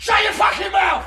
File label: loud